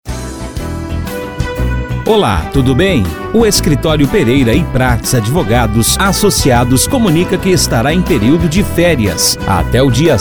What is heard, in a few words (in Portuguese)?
espera telefonica; PEREIRA E PRATES ADVOGADOS ASSOCIADOS